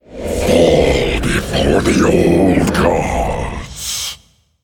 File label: Male Faceless Play